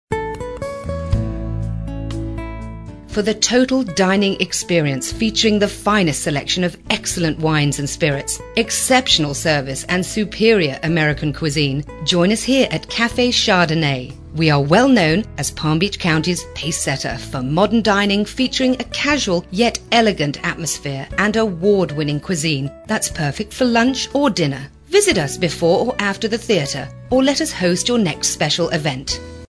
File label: British